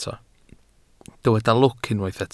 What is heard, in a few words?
"lwc", Welsh